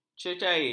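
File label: "cecair", Malay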